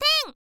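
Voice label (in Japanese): "ten", Japanese, girl; voice; info girl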